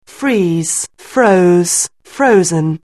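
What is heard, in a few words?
"freeze", English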